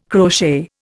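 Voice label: "crochet", Indian English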